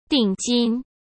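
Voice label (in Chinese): "定金", Mandarin Chinese; hsk; chinese-flashcards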